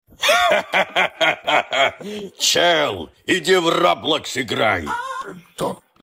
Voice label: vocals; чел, иди в роблокс играй